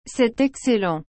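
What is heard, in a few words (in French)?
"Cest excellent", French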